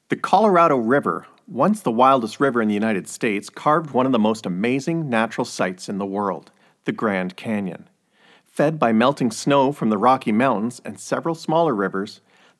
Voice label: Documentary Narration; Voice Over